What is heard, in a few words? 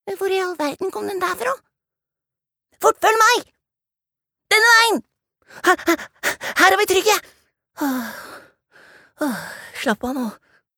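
dub, Norwegian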